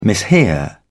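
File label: "mishear", British English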